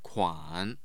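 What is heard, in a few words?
"kuan", Mandarin Chinese, tone 3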